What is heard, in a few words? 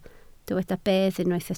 "bedd", Welsh